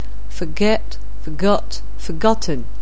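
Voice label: "forget", English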